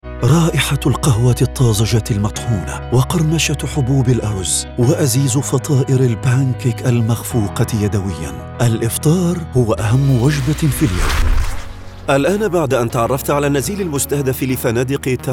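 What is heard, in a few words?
Arabic, male, commercial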